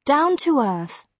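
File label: "down to earth", British English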